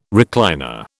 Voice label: "recliner", British